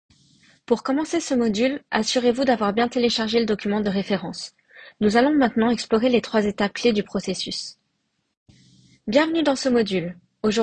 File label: E-learning